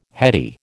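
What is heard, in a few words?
"heady", American English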